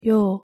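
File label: "ㅛ", Korean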